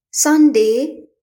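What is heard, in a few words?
"sunday", English; grade3; math; units of time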